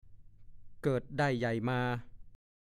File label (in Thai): "เกิดได้ใหญ่มา", ภาษาโคราช; (สำนวน) เกิดมา เติบโตมา